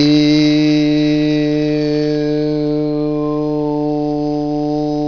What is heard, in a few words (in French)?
mi-fermées tendues, voyelles; tendues de [e] à [ɤ] : [eɘɤ]